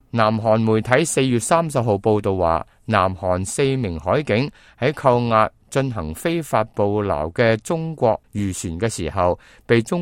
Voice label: cantonese, news